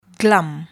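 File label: "glum", English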